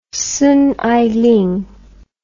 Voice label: "songailing", english